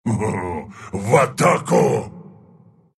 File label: attack, ru; Doom; dota2